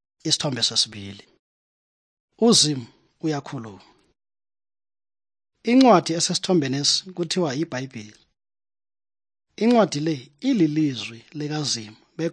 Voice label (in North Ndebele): good news ndebele southern, drama